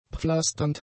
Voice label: "pflasternd", German; adjectives

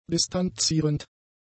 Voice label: "distanzierend", German; adjectives

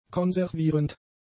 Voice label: "konservierend", German; adjectives

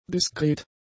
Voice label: "diskret", German; adjectives

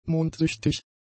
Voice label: "mondsüchtig", German; adjectives

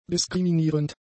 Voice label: "diskriminierend", German; adjectives

